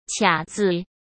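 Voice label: "卡子", Mandarin Chinese; chinese-flashcards; hsk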